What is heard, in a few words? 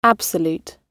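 "absolute", British English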